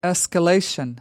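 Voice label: "escalation", British English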